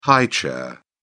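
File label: "high chair", English, British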